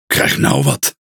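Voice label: NL Speech Quick Commands Caramba, Dutch; World Of Warships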